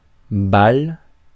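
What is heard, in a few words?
"balle", French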